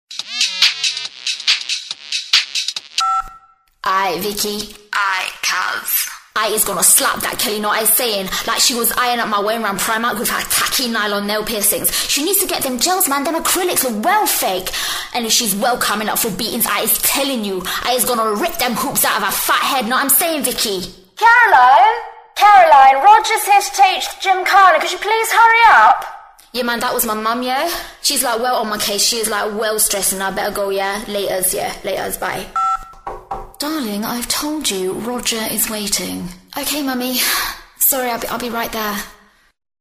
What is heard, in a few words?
Character; Vicki And Caz; Vocal Point